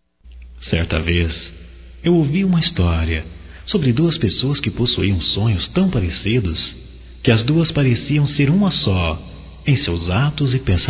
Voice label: Pêsames Geral Masculino

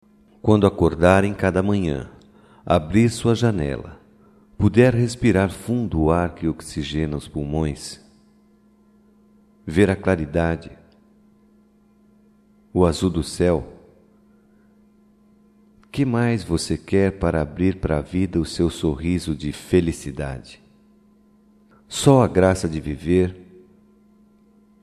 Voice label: OTIMISMO, Só Voz, masc; Telemensagens; Telemensagens 2017

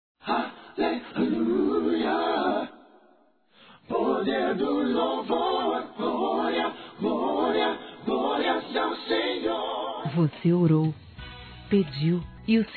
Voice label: PARA Q RECEBEU UMA BENÇÃO, Gospel, fem; Telemensagens; Telemensagens 2017

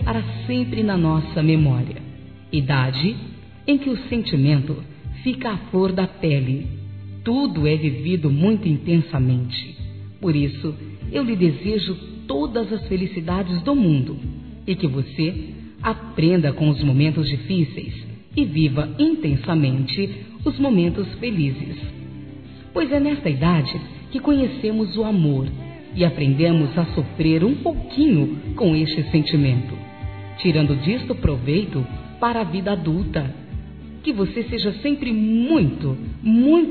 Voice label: Aniversário 15 anos Feminino; Aniversários; Telemensagens

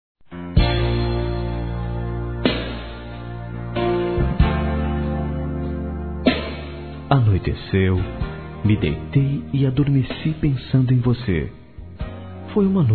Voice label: masc; Telemensagens; Conquistas Cantadas paqueras; SONHEI C-VOCÊ; Telemensagens 2017

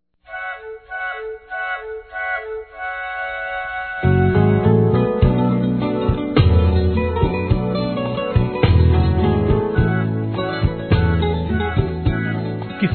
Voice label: Desculpas Não Ter Ficado Com Você, Masc; Telemensagens 2017

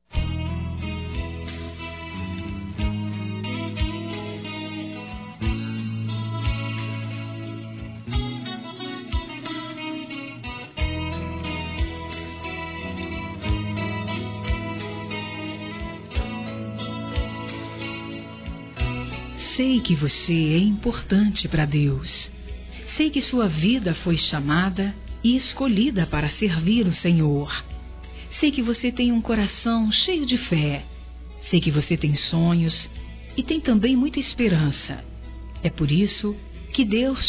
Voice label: ROMANTICO (TE AMO) F; Aniversários; ANIV. Evangelico; Fem

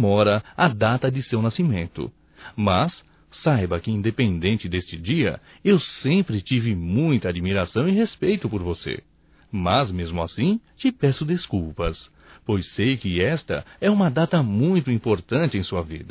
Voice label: Aniversário Atrasado Para Prima Masculino; Aniversarios; Telemensagens 2017

